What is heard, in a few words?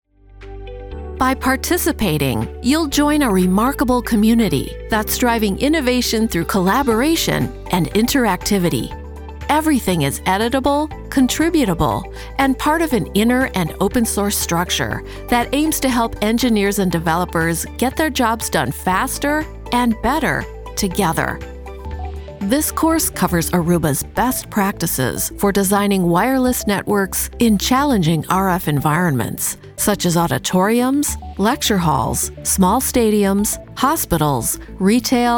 Elearning